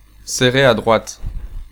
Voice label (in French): Bear Right, French, male